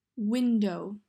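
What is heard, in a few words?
"Window", English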